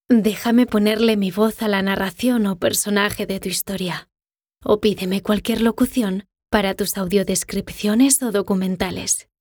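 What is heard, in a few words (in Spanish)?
NARRATIVO CASTELLANO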